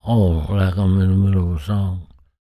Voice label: talk, spoken, blues soul

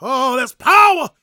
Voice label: PREACHIN, Gospel, PREACHER; ILIO; Vocal Planet

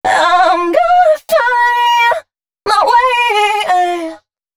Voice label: female, Dance Ultra Voice hooklines

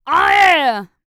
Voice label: FEM SPOKEN; ILIO; Vocal Planet VOL-4; R&B & Dance

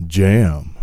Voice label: "JAM", Male Vox, Hip Hop; Hip Hop Nation

